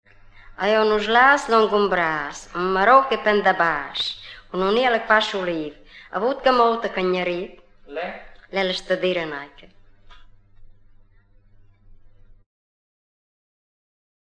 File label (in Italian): indovinello, romagna